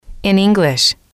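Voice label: in english, English, female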